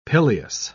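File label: "pileus", British English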